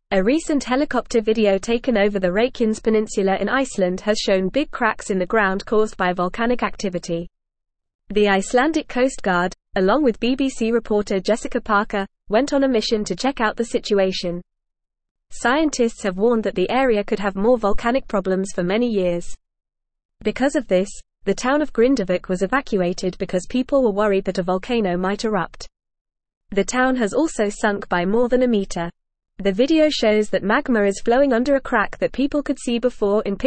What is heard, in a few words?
English Upper Intermediate FAST Reading; English Newsroom